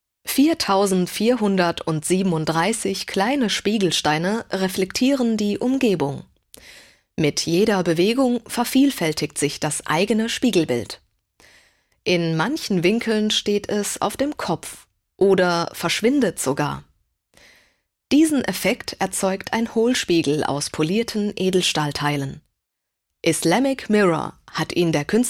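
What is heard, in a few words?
German audioguide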